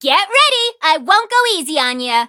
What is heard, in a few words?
battle, en; Cookie Run